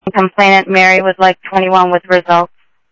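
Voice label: Sheriff East County, scanner audio; MCSO - EAST; ThompsonPeakScot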